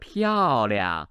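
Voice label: "piao4 liang5", Chinese, male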